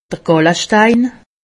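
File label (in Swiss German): "gallestein", Haut Rhin; medecine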